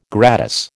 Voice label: "gratis", American

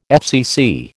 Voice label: "FCC", American

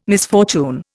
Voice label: "misfortune", Indian

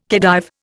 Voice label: "Khedive", Indian

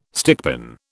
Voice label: "stickpin", British

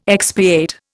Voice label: "expiate", Indian

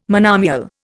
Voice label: "monomial", Indian

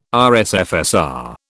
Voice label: "RSFSR", British

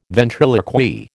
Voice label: "ventriloquy", American

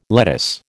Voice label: "lettuce", American

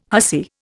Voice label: "hussy", Indian

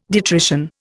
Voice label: "detrition", Indian

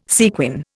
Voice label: "sequin", Indian

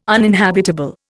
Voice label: "uninhabitable", Indian

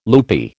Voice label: "loopy", American

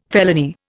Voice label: "felony", Indian

